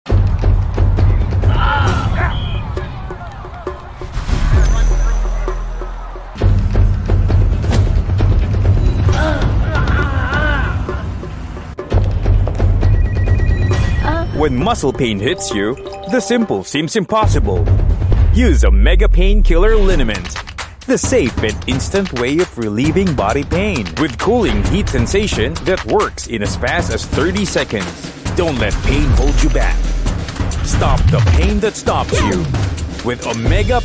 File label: Filipino English; OMEGA PAIN KILLER